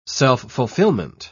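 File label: "self-fulfillment", British English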